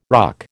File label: "RUC", American